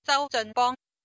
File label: cantonese